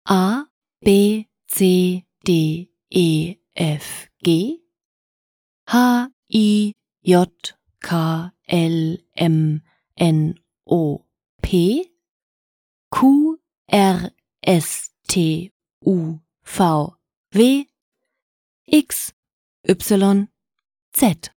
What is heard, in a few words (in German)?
abc deutsch